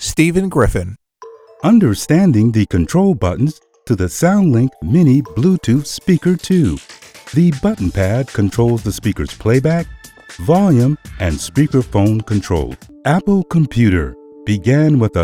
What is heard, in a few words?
E-Learning Explainer; Narration